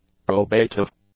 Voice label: "probative", english; adjectives